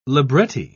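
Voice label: "libretti", British English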